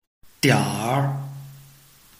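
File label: "dianr", Chinese, tone 3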